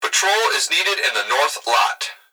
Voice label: Human Male Voice Plant Security Dispatch; Emergency